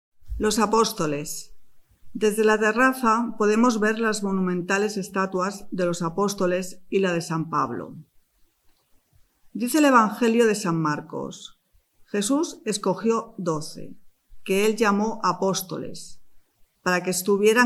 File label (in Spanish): Audio Espanol; TIBIDABO; audioguias